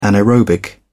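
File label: "anaerobic", British English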